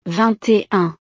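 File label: "vingt et un", French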